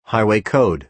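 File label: "highway code", American English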